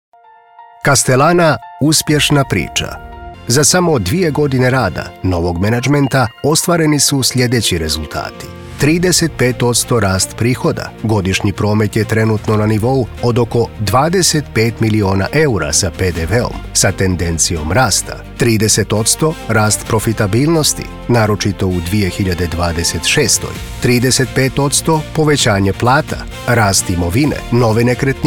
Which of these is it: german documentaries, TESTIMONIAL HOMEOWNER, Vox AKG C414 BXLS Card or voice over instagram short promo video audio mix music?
voice over instagram short promo video audio mix music